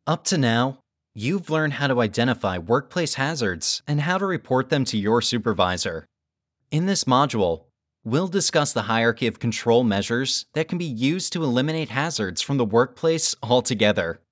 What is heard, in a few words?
eLearning Workplace Hazards